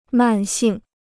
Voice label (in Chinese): "慢性", Chinese